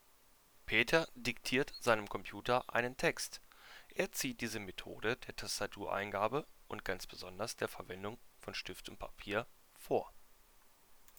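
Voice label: Logitech G332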